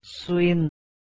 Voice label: "swin", Anglo Saxon

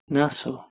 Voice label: "naso", Proto Germanic

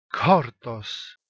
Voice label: "khortos" Ancient Greek

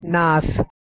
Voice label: "naas", Punjabi

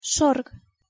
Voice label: "sorg", Anglo Saxon

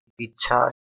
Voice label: "icchaa", Hindi

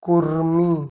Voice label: "kurumI" Odia

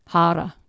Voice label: "hara", Anglo Saxon

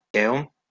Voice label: "eom", Anglo Saxon

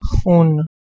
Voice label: "xun", Persian

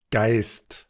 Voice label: "gaist", Proto-Germanic

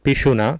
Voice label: "piSuna", Sanskrit

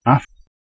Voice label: "aff" Anglo Saxon

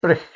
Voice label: "bricht", Scots

